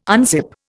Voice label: "unzip", Indian